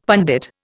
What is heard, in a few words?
"pundit", Indian; Dictionary